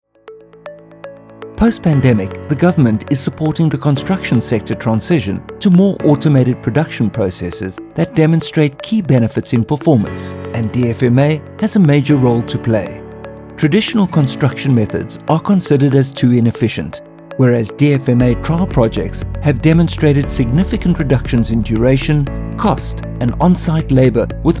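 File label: Corporate Video Male voice over; Adage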